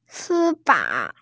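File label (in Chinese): "si1fa3", Mandarin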